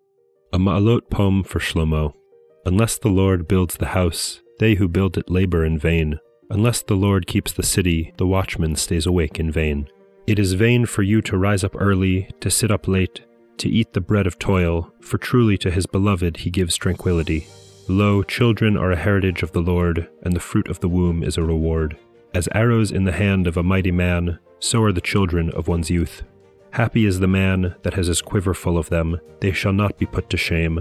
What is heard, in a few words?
poetry and prayer psalm english